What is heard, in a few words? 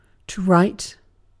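"write", British English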